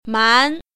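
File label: "man2", Mandarin Chinese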